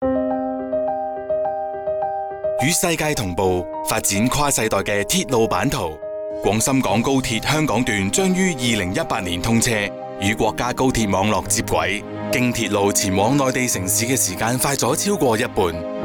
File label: Radio Cant